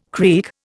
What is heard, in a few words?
"creak", Indian